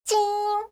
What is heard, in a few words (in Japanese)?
"chiin", voice